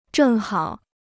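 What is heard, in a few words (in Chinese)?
"正好", Chinese; zhèng hǎo; พอดี; พอดีกัน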